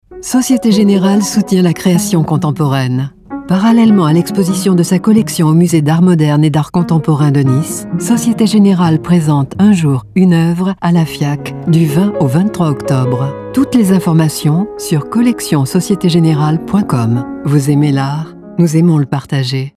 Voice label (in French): Radio; Ste Generale